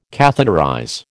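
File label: "catheterize", American English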